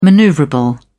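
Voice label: "maneuverable", British English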